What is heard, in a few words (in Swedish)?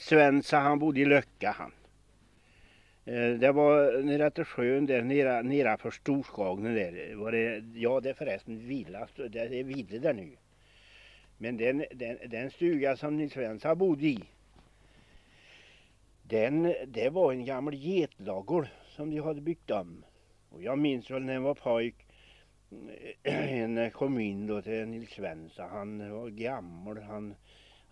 Hammarö, dialekter; Folkminnen; Svenska sagor